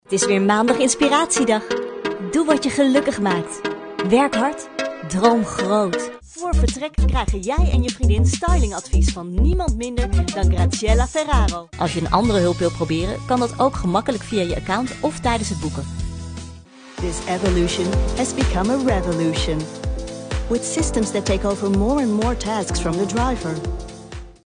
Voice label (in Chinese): 荷兰语女 活力; 外语